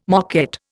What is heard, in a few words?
"moquette", Indian